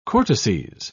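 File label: "cortices", British English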